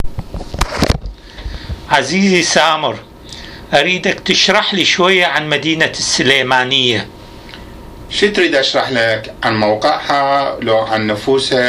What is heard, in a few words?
Dialogue about Sulaimaya City, Iraqi Dialect